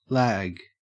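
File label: "lag", Australian English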